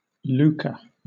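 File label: "lucre", British English; pronunciations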